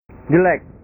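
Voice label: "jelek", Indonesian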